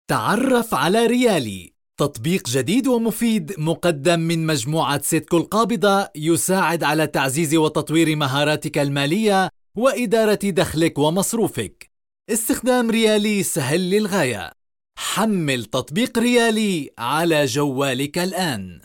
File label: arabic movie trailers